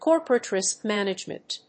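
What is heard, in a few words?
"corporate risk management", English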